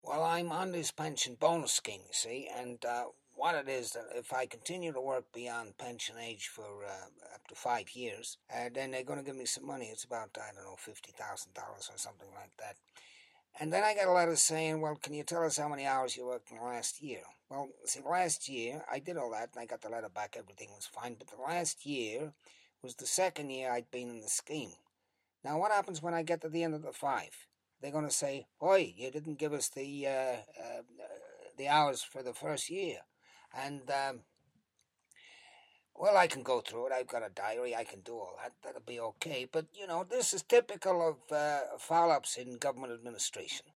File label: Character